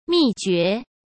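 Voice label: "秘诀", Mandarin Chinese; chinese-flashcards; hsk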